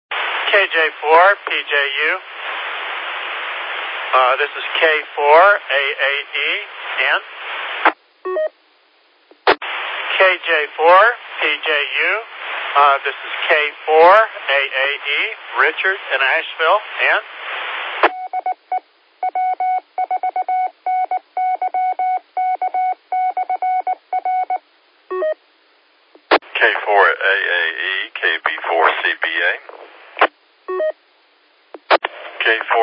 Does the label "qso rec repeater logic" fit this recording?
Yes